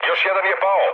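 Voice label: Serbian player killed enemy mood low; speech; War Thunder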